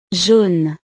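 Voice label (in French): "jaune", French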